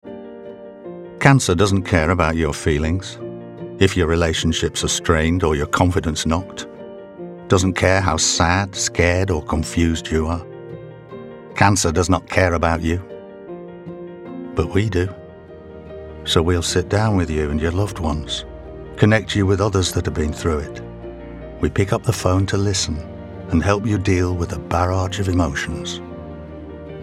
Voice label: Commercial; Cancer